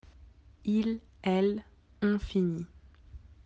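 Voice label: "finir", French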